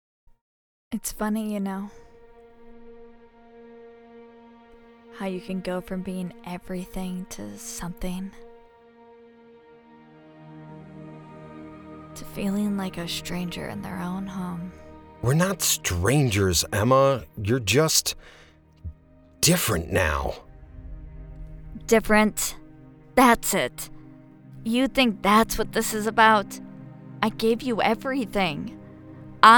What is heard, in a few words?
audiodrama emotional